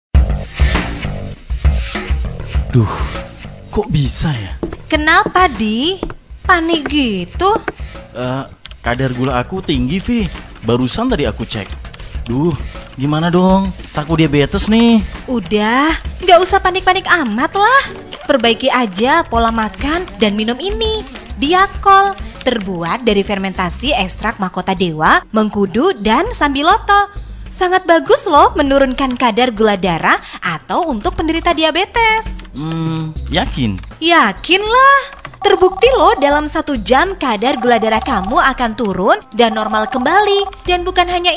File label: Spot; Diachol